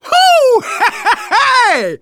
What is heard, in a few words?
reaction positive, manager, survivor, voice; Left 4 Dead 2; left4dead2 dlc2